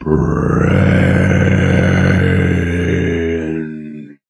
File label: "braaains", zombie speech